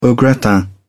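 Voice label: "au gratin", British English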